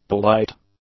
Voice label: "polite", english; adjectives